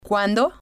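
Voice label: "cuando", spanish, female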